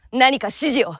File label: japanese Idle; azurlane-hoi4; azurlane-tj